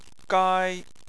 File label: "gai1", Chinese